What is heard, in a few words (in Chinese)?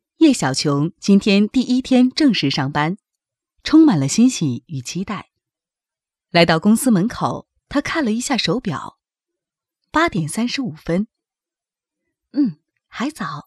女, 小说剧